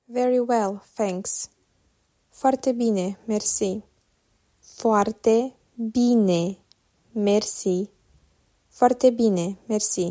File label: Very well Thanks, romanian